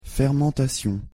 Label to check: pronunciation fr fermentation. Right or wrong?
Right